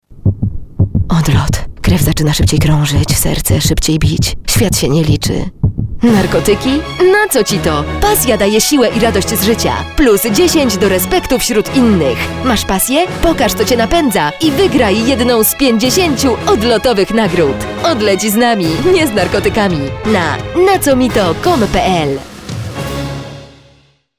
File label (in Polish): Spot radio odlec z nami nie z narkotykami